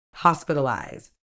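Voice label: "hospitalise", British English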